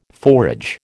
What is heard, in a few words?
"forage", American